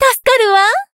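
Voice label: healed, Japanese; sherinu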